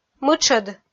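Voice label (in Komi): "мытшӧд", Komi, female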